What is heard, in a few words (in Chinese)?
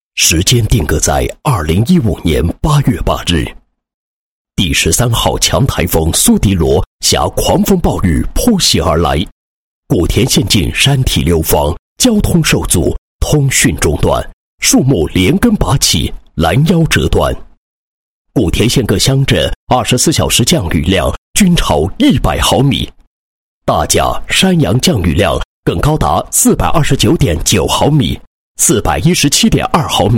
男 专题 纪实; 县供电公司防抗台风“苏迪罗”纪实